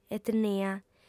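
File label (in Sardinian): "etnia", female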